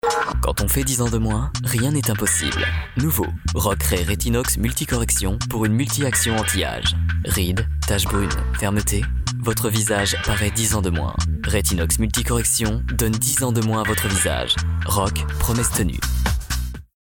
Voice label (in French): Voix off pub